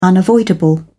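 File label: "unavoidable", British English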